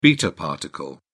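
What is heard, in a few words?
"beta particle", British English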